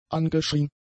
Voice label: "angeschrien", German; adjectives